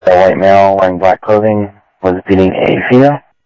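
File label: Sheriff East County, scanner audio trunk; MCSO - EAST; ThompsonPeakScot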